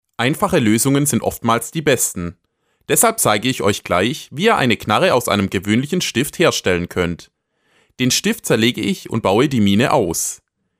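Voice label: German male; lektoring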